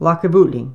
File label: "lagavulin", Placenames, islay